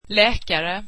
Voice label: swedish doctor